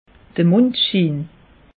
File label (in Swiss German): "mondschiin", alsace; meteo